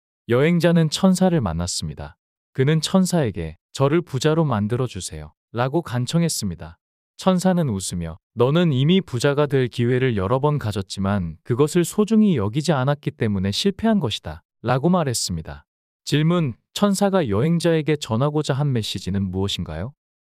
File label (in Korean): 이야기 남자; 여행자와 천사